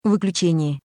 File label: Shutdown, ru; EFI Audio